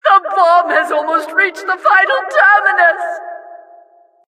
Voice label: announcer cart attacker final warning